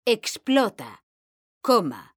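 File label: "explota coma", Spanish, female; mekanta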